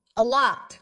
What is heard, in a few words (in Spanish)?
Voice Acting es